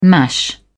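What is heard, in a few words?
"mash", British English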